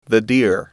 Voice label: "deer", English

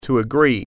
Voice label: "agree", english

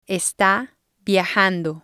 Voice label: "está viajando", Spanish

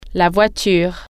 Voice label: car, french

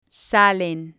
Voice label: "salen", Spanish

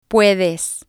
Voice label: "puedes", spanish

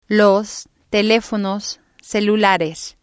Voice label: "los telefonos celulares", spanish

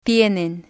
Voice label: "tienen", spanish

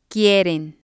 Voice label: "quieren", spanish

